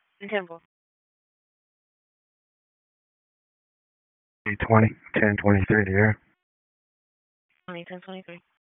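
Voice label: Sheriff's Office Dispatch; WSO DISP 1; p25; wake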